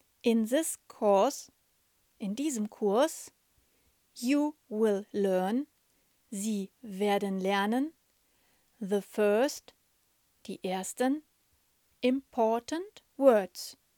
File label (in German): Hörprobe A1 mit deutsch